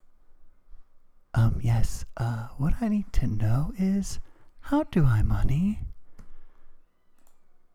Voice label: bad voice